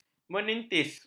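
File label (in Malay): "menitis", Malay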